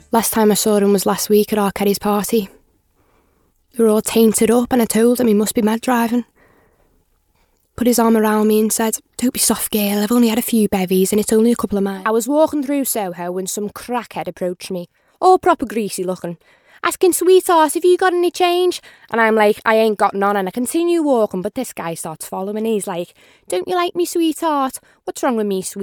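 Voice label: commercial, regional accents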